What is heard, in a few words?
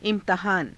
"i^tahaana", Hindi